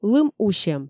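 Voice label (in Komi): "лым усьӧм", Komi, female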